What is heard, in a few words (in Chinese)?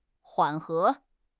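"缓和", Chinese; HSK6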